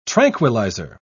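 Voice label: "tranquilliser", British English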